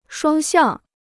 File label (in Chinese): "双向", Chinese